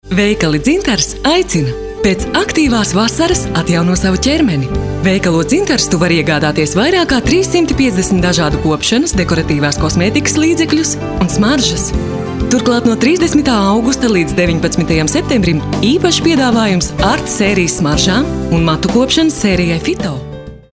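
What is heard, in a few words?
lv, Latvian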